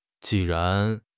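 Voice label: "ji4 ran2", Chinese; HSK4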